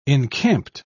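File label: "encamped", British English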